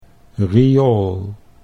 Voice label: "riool", Dutch